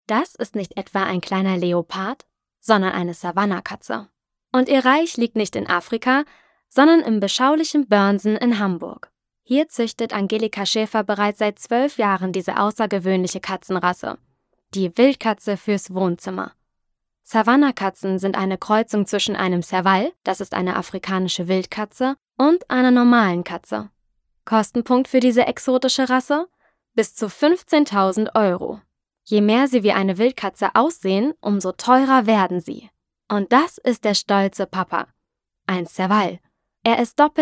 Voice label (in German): voiceover deutsch; sprecher